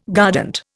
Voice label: "guardant", Indian

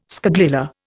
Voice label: "scagliola", Indian

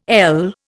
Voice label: "ell", Indian

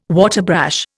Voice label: "waterbrash", Indian

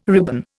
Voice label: "ribbon", Indian

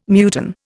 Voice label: "muton", Indian

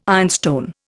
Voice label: "ironstone", Indian

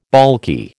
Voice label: "baulky", American

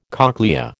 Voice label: "cochlea", American

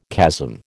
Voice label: "chasm", American

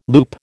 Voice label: "loop", American

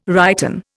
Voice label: "righten", Indian

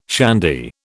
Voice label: "shandy", British

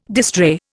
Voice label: "distrait", Indian

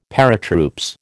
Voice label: "Paratroops", American

